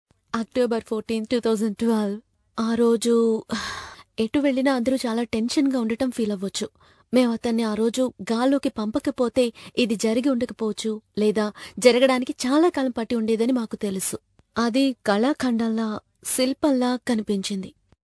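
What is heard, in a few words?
telugu female voice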